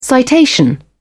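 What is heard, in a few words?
"citation", British English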